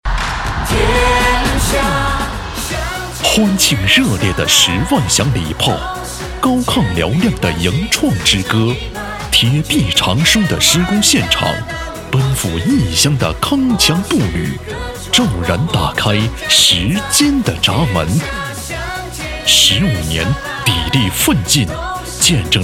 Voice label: 男 颁奖专题; 安徽盈创石化检修安装有限责任公司改制十五周年

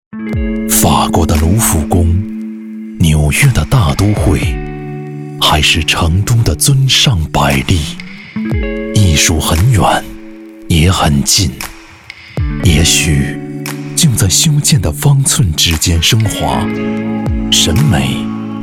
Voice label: 男 电台广告 时尚; 尊尚柏丽

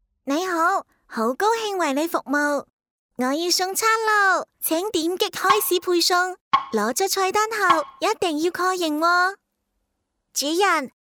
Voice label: 女 粤语童声 小女孩

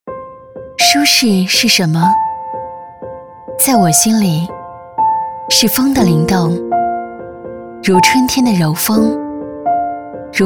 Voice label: 女,旁白 什么是舒适

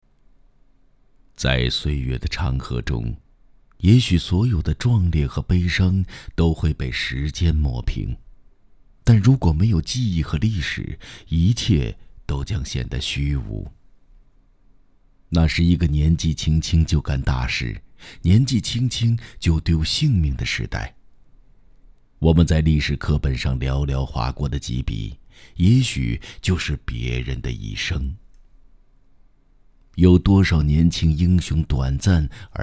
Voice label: 男,纪录片沧桑讲述类; 星星之火

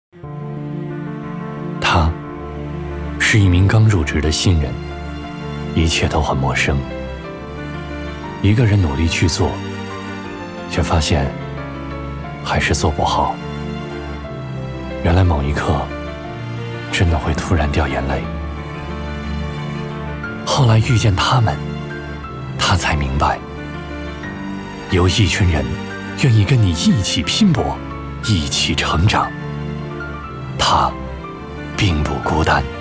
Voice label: 男-走心旁白-广告; 中信

